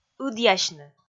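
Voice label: "ыдъясьны", Komi, female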